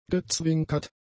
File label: "gezwinkert", German; adjectives